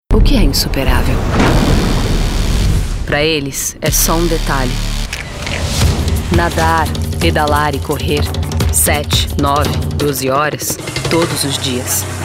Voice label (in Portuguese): EMPODERADA